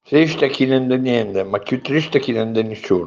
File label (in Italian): proverbio abruzzese